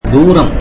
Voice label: tamil far from